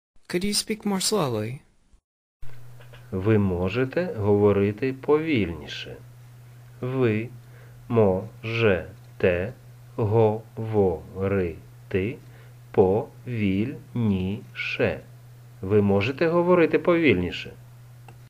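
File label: Ukrainian, Could you speak more slowly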